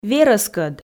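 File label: "верӧскӧд", Komi, female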